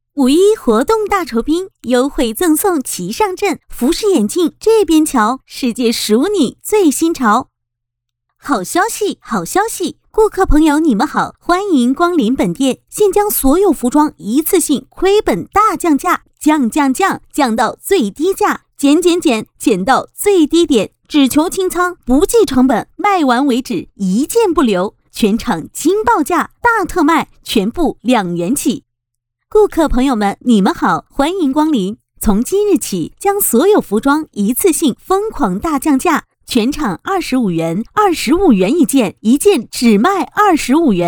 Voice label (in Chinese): 促销